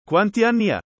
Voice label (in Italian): chiedere formale, italiano